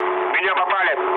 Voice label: aircraft damaged, mood low, speech ru